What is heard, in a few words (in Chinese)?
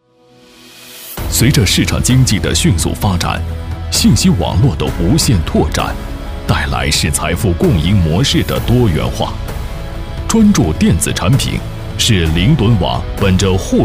男, 时尚宣传片